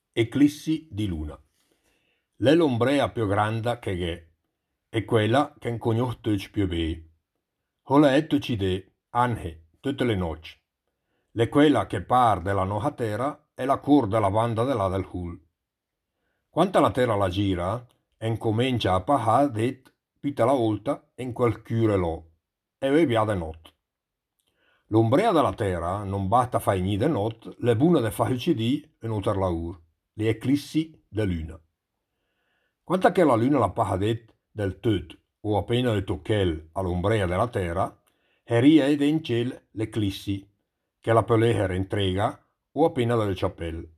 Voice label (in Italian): dialetto lumezzanese; Eclissi di Luna